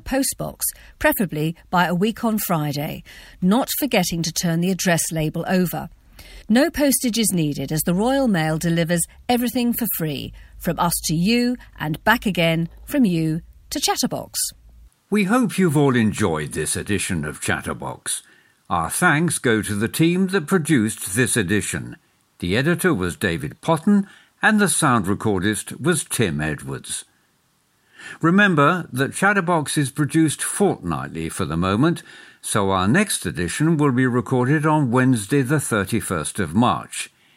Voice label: Closing Announcement